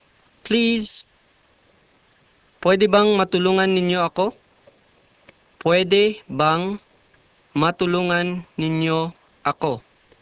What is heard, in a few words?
Tagalog Please